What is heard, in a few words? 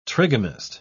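"trigamist", British English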